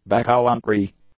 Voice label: "backcountry", English; nouns